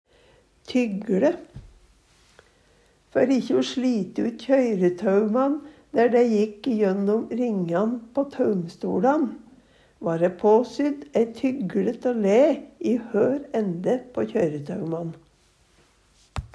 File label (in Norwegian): tygLe, Numedalsmål